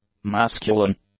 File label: "masculine", English; adjectives